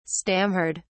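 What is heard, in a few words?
"stammered", British English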